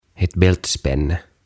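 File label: "Ett Bältespänne", Swedish; Vyönsolki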